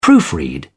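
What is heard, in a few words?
"proofread", British English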